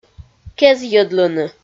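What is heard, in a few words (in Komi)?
"кезйӧдлыны", Komi, female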